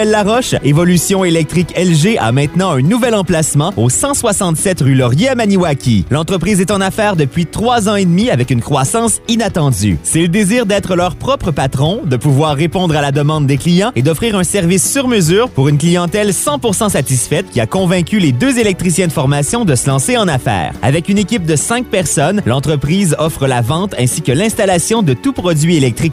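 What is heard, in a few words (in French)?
capsule économique, radio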